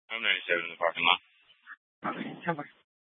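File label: police dispatch, Scottsdale PD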